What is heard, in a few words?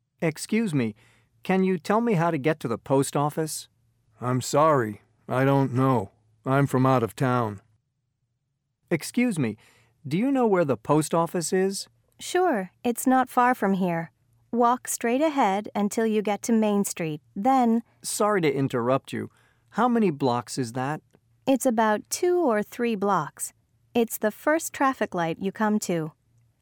Asking for Directions Dialogue Reading